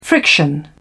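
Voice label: "friction", British English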